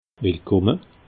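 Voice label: "wilkomme", Alsatian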